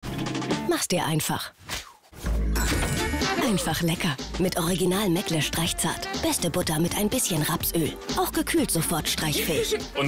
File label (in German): TV Spot; Meggle